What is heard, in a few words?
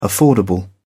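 "affordable", English, uk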